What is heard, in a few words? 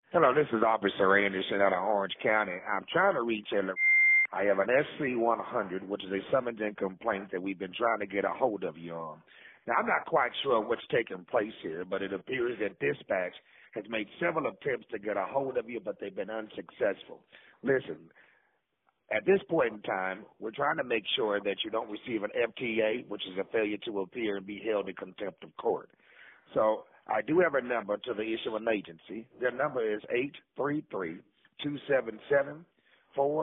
Voicemail